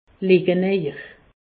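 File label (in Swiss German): "leje", Alsatian; medecine; couchez vous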